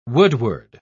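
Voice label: "woodward", British English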